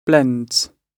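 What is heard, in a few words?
"blends", British English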